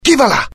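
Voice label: curious, Italian; mohaa; dialogue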